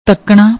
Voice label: "takkna", Punjabi; ਤੱਕਣਾ

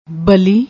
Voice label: "bali", Punjabi

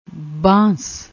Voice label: "baans", Punjabi

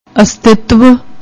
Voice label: "astitavv", Punjabi; ਅਸਤਿਤਵ

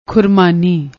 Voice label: "khurmaani", Punjabi; ਖੁਰਮਾਨੀ

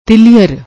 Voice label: "tiliar", Punjabi; ਤਿਲੀਅਰ

